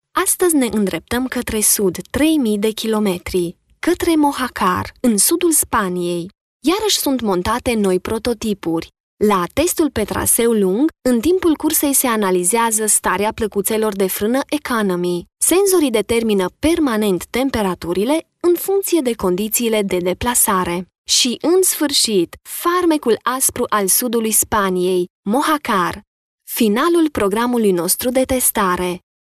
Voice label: romanian narration

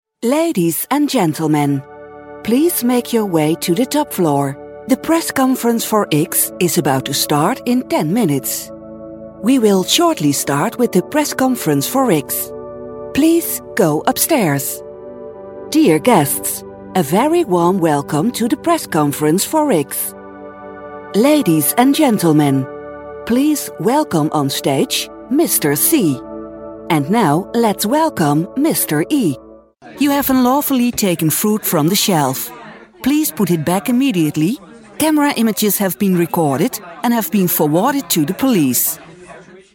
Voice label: dutch female english

